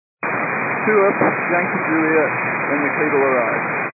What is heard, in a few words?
122 725MHz, scanner radio